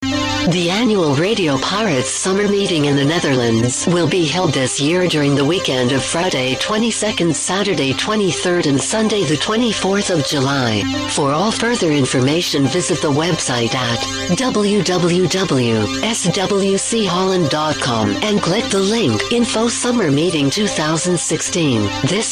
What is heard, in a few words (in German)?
PROMO; SUMMERMEETING2016